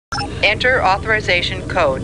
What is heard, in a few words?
Computer Voice; Star Trek; StarTrekLCAR